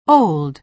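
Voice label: "old", American English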